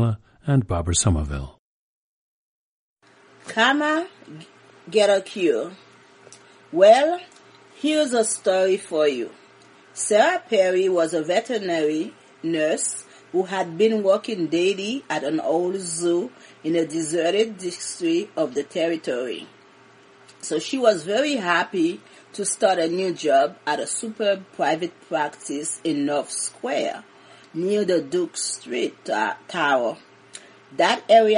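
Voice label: haiti, International Dialects of English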